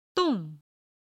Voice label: "dong4", Chinese

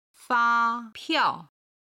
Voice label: "fa1piao4", Chinese

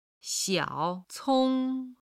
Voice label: "xiao3cong1", chinese

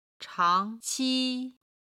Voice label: "changqi", chinese

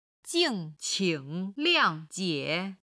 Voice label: "jing4qing3liang4jie3", chinese

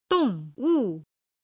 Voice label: "dong4wu4", Chinese

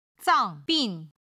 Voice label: "zangbin", Chinese

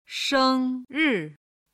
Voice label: "sheng1ri4", chinese

